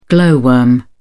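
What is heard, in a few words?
"glow worm", English, British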